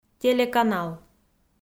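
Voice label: "телеканал", Komi, female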